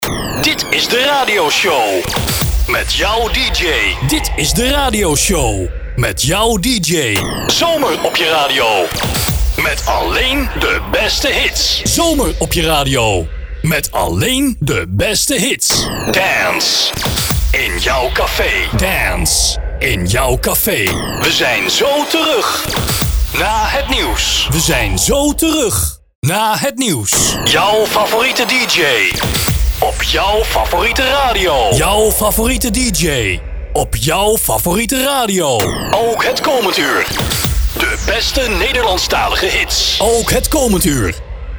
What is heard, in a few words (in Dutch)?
Algemene Jingles